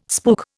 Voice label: "spook", Indian

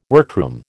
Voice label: "workroom", American

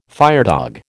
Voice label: "firedog", American

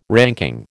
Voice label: "ranking", American

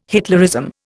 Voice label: "Hitlerism", Indian

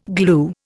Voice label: "glue", Indian

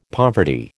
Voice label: "poverty", American

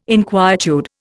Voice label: "inquietude", Indian

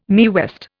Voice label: "Mae West", Indian

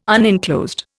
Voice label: "unenclosed", Indian

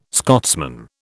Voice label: "Scotsman", British

